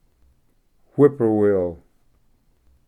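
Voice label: "eastern whip poor will", English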